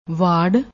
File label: "war", Punjabi